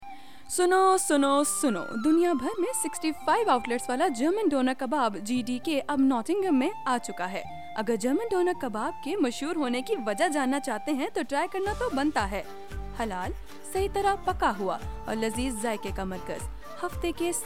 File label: Urdu Advert; German Doner